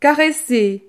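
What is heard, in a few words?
"caresser", French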